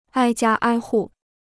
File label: "挨家挨户", Chinese